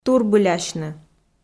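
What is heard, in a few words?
"турбылясьны", Komi, female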